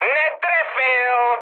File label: bomb failure, speech cze, mood high; War Thunder